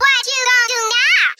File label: what you going to do now, female; Voice; questions